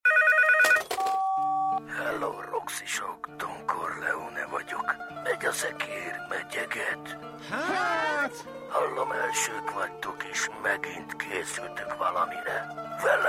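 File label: Hungarian Characters; GoLocalise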